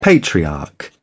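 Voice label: "patriarch", British English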